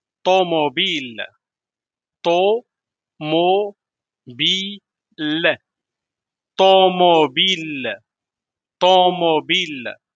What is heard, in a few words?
car in moroccan arabic vocabulary darija